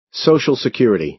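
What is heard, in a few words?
"social security", American English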